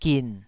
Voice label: "gin3", taiwanese